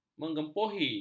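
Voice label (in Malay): "mengempohi", Malay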